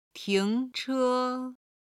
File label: "ting2che1", chinese